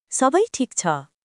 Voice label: Nepali, basic phrases

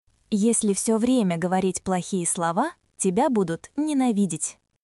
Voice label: Russian, russian phrases insults; ロシア語のネガティブなフレーズ

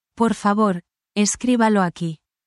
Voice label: spanish, spanish phrases

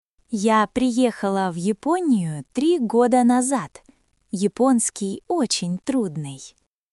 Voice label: russians; ロシア語; everyday conversations; russian phrases for everyday conversations and various words

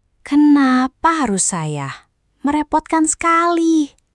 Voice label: indonesia, indonesian negative phrases

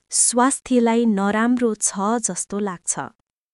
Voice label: Nepali; ネパール語の「悪口・不満」フレーズ; negative phrases in nepali; insults complaints

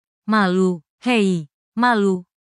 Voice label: indonesian phrases

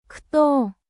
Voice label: russian phrases

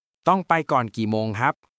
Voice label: Thai, basic thai phrases

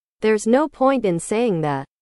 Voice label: english

